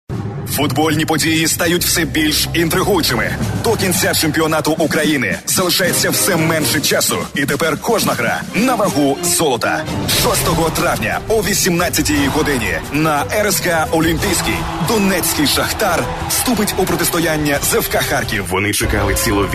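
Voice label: Ukrainian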